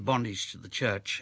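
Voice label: bondaTSH tX thX church, Australian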